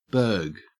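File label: "burg", Australian English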